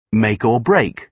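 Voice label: "make-or-break", British English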